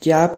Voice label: "Kya", Kangri; What